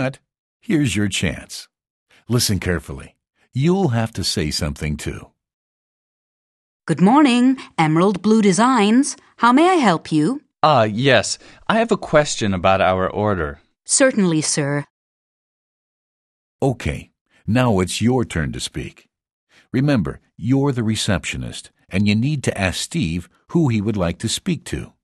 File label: business english telefonieren; hueber